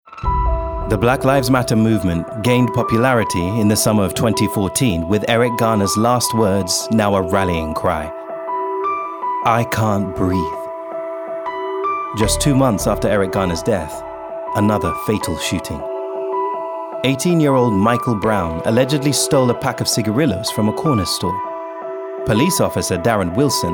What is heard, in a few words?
Narration UK